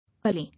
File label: "Allay", English